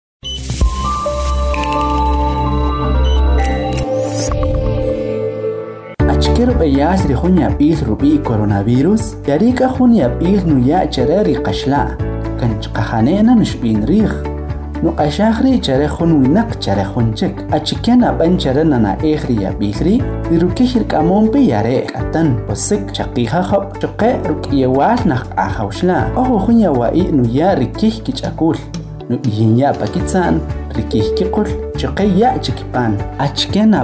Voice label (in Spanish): Spot Radial Kaqchikel